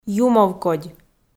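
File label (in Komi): "юмовкодь" Komi, female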